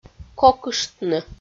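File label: "кокыштны", Komi, female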